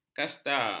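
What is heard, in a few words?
"kasta", Malay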